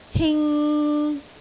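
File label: "hing3", Cantonese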